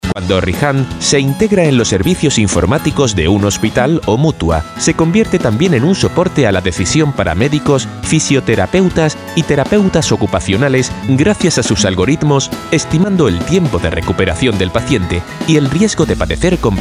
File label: Spanish